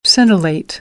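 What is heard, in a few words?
"scintillate", American English; AmericanBritish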